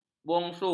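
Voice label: "bongsu", Malay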